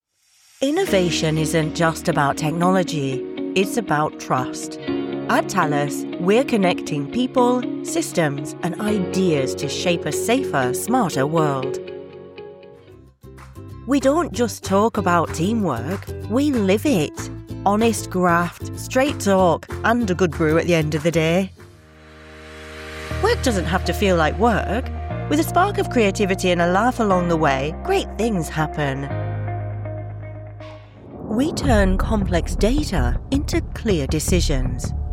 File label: Corporate